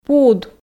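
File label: "пуд", Komi, female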